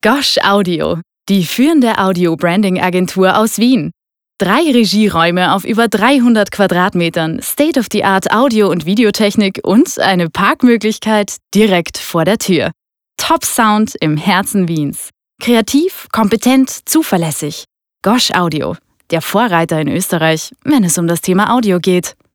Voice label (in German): Plakativ